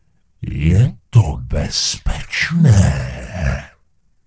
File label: Gnome Male err spell cooldown; wowpreklad; czwow; GnomeMaleErrorMessages